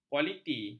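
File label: "kualiti", Malay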